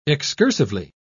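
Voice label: "excursively", British English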